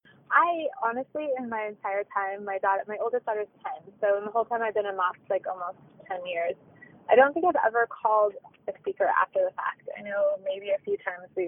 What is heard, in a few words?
voicemail; Moms Event